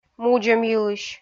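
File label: "мудзӧмйылысь" Komi, female; kpv audio dict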